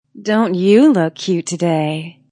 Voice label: Wmn Says Dnt U Lk Cute, Woman Says Don't You Look Cute; Talking